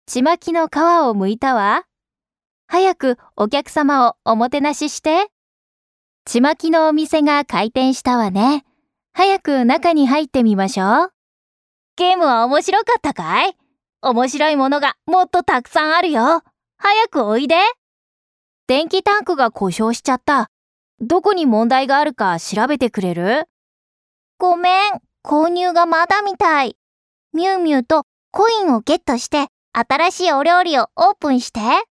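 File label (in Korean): 일본어 차분/편안; Voice actor sample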